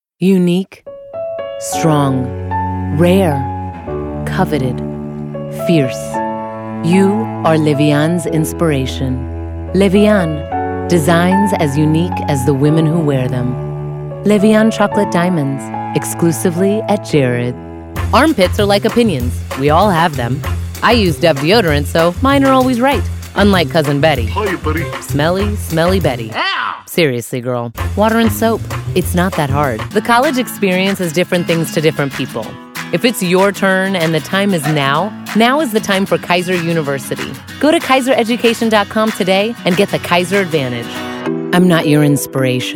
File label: English Commercial